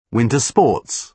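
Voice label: "winter sports", British English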